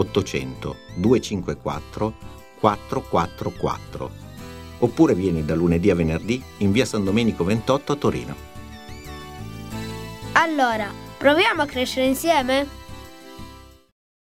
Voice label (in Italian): spot affido